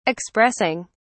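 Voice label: "expressing", British English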